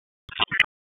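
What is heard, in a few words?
Raleigh Police North District Tactical, p25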